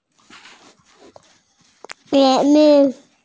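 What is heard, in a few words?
"qian bi", Mandarin Chinese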